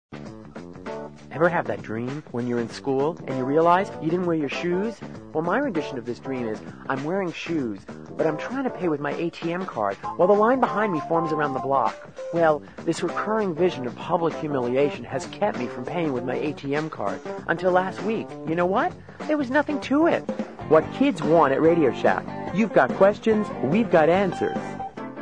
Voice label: Voiceover